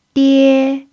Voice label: "die1", chinese pinyin